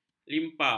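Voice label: "limpa", Malay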